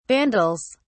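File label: "vandals", British English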